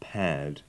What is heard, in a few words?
"pad", English